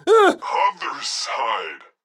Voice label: phone bad other; Blind Drive

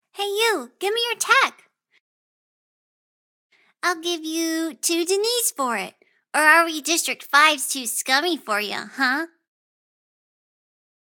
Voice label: kid; Pitch Black - A Detective's Demise

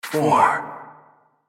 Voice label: Announcer; Pitch Black Audio Pong

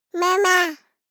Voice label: BABIES TALK Boy 12 months "Mama"; Baby Boom

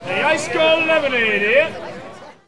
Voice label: Baseball Crowd Vendor; SFX Kit; Crowds

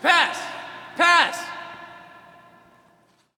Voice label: Hockey Male "Pass"; Hockey Vocal Sounds

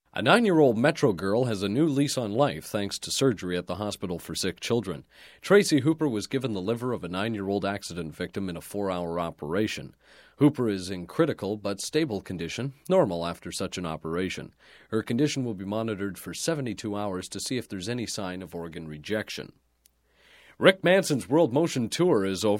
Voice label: Broadcast, News Generic Good News Report, Radio; Sound Ideas; Series 2000

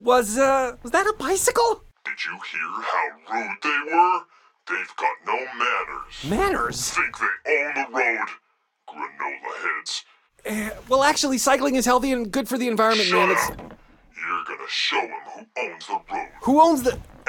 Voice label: tutorial cyclist outro; Blind Drive

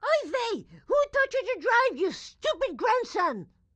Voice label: grandma chopper annoyed; Blind Drive

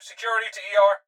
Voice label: Hospital Pa Voice; Blastwave

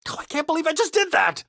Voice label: pedestrians hit disgust; donnie; Blind Drive

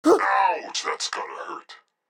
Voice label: phone bad hurt; Blind Drive

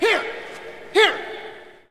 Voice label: Hockey Male "Here"; Hockey Vocal Sounds

